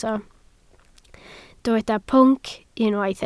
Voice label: "pwnc", Welsh

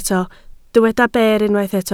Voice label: "ber", Welsh

